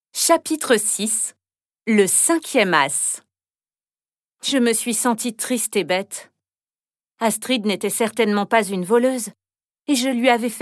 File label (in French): livre audio enfants; J'AIME LIRE